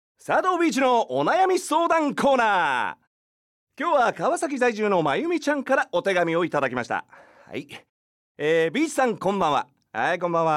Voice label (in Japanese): フリートーク